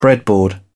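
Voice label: "breadboard", British English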